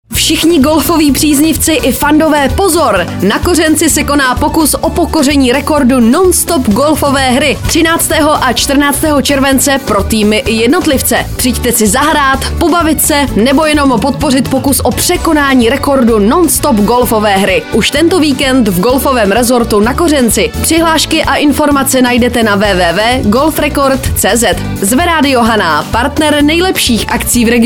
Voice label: spot radio; hana golf rekord 2013